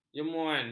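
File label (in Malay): "jemuan", Malay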